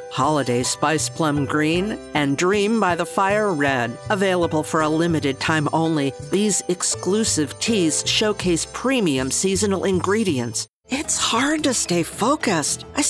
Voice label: Commercials with Character

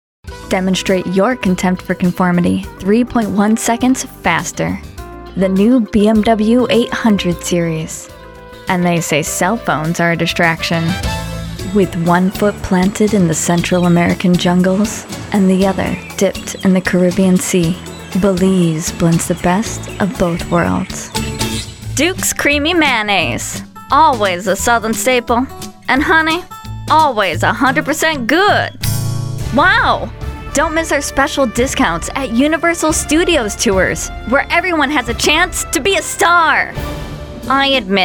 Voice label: COMMERCIALS VO

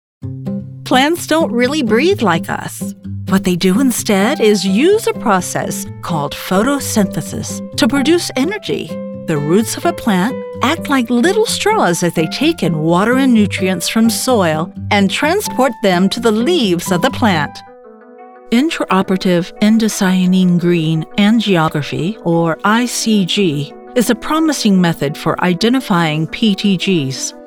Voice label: E-learning Narration Demo

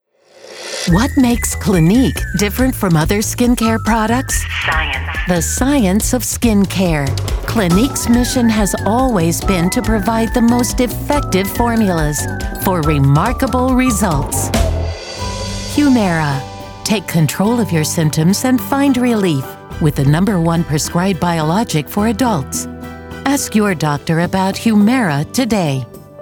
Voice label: Commercial Demo

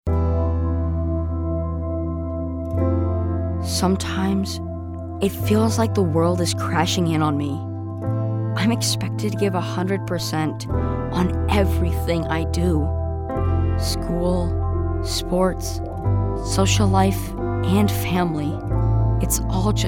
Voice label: PSA VO Spots

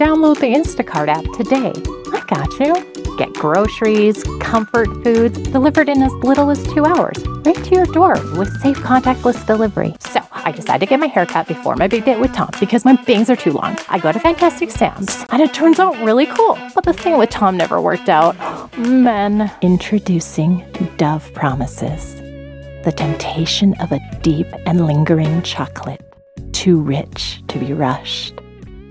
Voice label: Commercial Demo

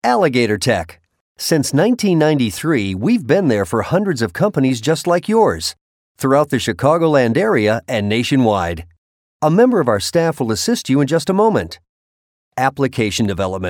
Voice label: IVR, On-Hold, & other Telephony

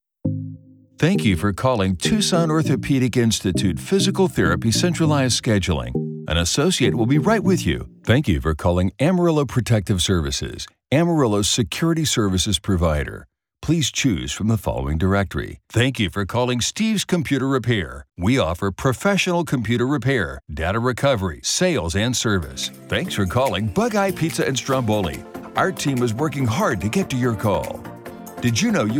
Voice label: Telephone IVR

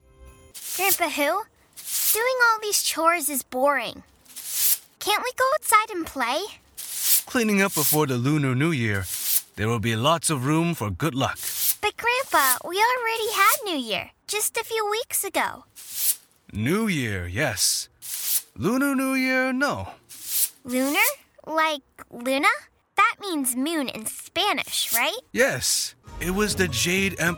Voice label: Audio Drama

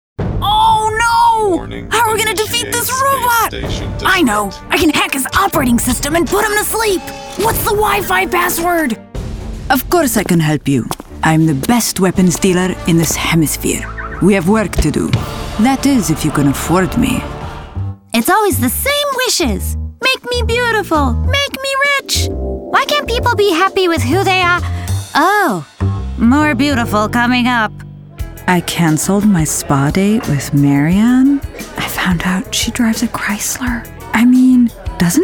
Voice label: Animation

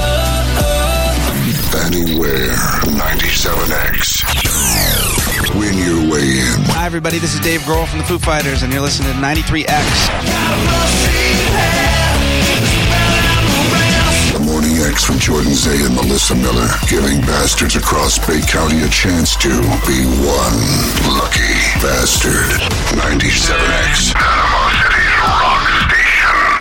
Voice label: Radio Imaging Classic Alternative Active Rock; Power Elements

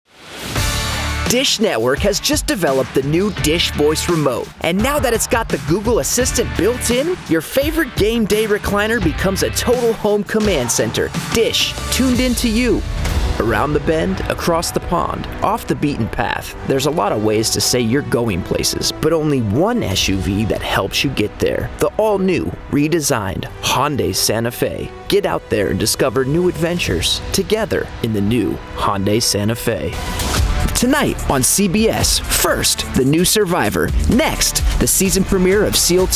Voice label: Commercial VO

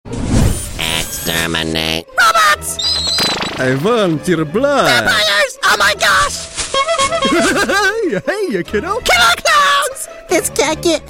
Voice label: cartoon VO; courage

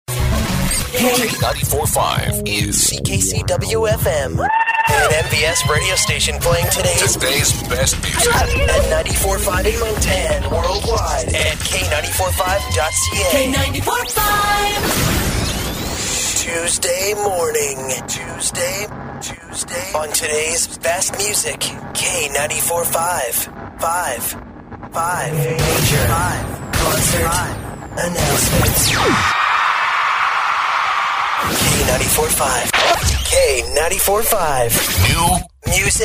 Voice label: Demo Imaging

